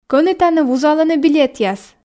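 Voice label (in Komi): кӧні тані вузалӧны билетъяс, Komi, female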